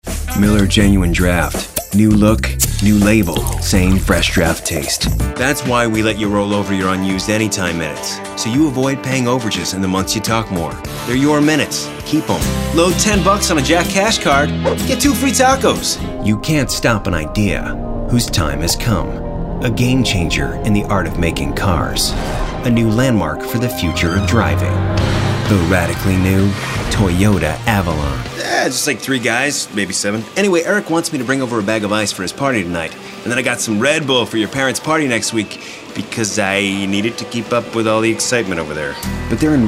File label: commercial male; commercial men